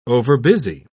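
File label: "overbusy", British English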